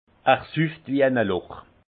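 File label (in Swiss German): "sufftwienaloch", alsace Haut-Rhin; vin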